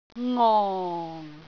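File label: "ngong4", Cantonese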